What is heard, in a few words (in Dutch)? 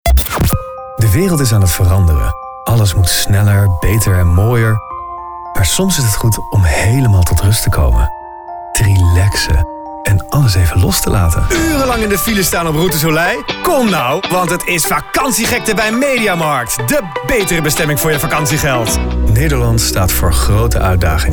NL